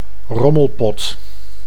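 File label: "rommelpot", Dutch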